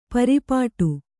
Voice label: "ಪರ್ಪಟಿ", Kannada

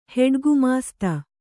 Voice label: "ಹೆಡ್‍ಗುಮಾಸ್ತೆ", kannada

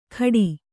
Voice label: "ಖಡಿ", Kannada

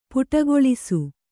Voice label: "ಪುಟಗೊಳಿಸು", Kannada

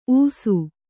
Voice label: "ಊಸು", Kannada

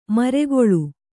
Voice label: "ಮರಗಾಳಿ", Kannada

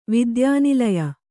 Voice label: "ವಿದ್ಯಾನಿಲಯ", Kannada

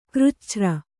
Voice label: "ಕೃಚ್ಛ್ರ", Kannada

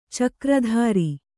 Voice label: "ಚಕ್ರಧರ", Kannada

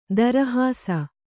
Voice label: "ತಿರುಹಿಸು", Kannada

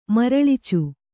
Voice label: "ಮರಳಿಚು", Kannada

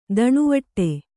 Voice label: "ದಣಿವಟ್ಟೆ", Kannada

